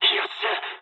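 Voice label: bomb success mood low, speech japanese; War Thunder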